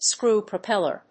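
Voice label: "screw propeller", English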